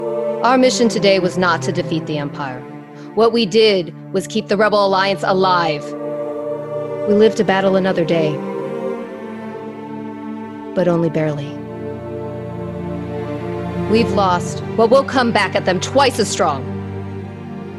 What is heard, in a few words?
Female Commander Videogame; Voice123